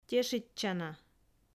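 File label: "тешитчана", Komi, female; audio dict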